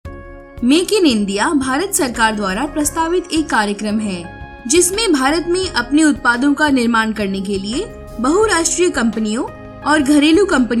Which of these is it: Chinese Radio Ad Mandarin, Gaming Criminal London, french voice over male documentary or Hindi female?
Hindi female